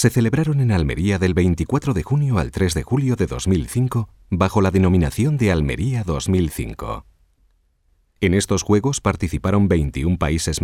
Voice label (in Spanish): audioguia estadio j mediterraneos